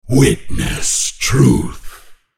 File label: ultimate effort; Aatrox